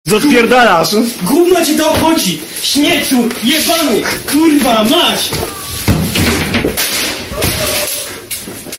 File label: angry polish kid